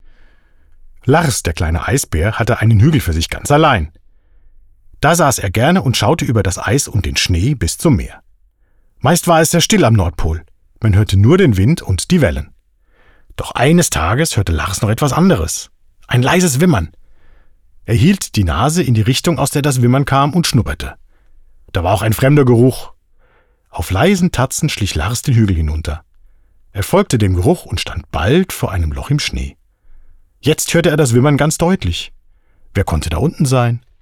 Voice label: male german comercial